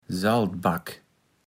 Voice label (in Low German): "Saltback", Platt